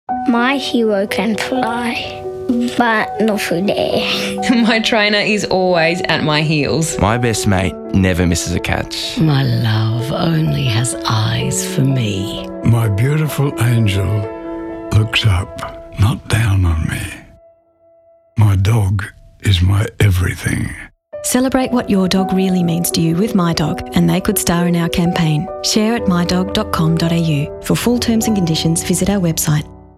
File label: Radio